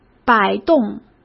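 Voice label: "摆动", Mandarin Chinese; chinese-flashcards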